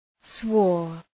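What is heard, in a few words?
"swore", English; {swɔ:r}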